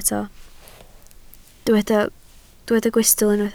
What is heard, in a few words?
"gwystl", Welsh